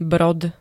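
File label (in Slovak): "brod", Slovak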